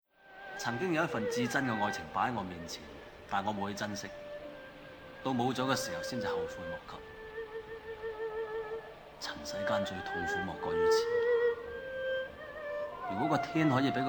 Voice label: line cantonese; stephen chow